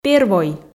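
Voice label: "первой", Komi, female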